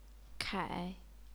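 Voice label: "kai", Chinese, third tone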